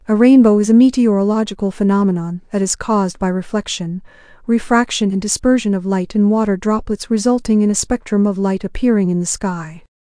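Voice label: speaker, American English; piper-voices; en US; libritts r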